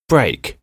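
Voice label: "brake", English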